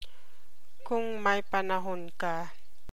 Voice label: tagalog